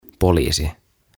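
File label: "Poliisi", Finnish; Policeman; OTAVA KONSERNI; tuhatsanaa; aantamisohjeet suomeksi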